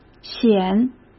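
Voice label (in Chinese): "险", Mandarin Chinese; hsk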